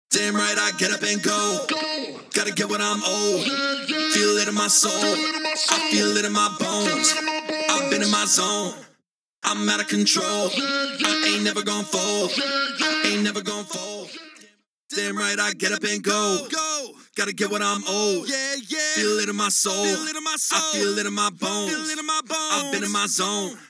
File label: Vocal ASTROVOX, Eventide H90; MixingLink